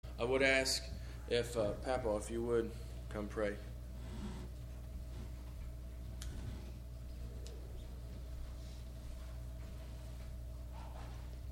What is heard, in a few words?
Broadcast Prayer